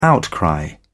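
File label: "outcry", British English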